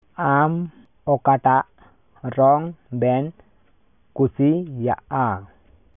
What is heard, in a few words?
Santali; Which is your favourite colour?